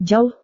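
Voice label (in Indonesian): "jauh", Indonesian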